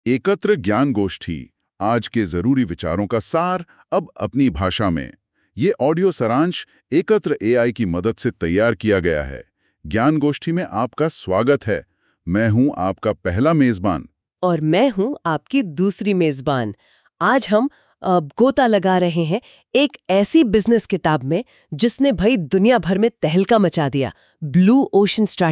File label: Audio blue ocean strategy hindi